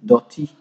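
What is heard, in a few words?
"doty", Nigerian Pidgin, male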